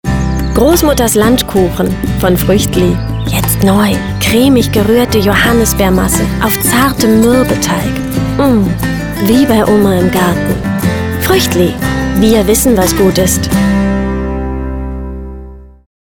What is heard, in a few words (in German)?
früchtli werbung